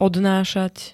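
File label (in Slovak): "odnášať", Slovak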